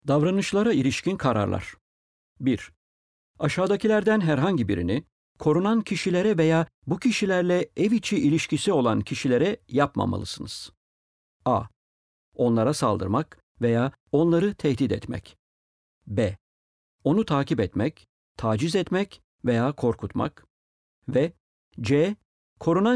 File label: orders about behaviour, turkish; apprehended domestic violence orders accessible guides